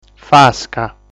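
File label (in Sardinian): "Fasca", Sardinian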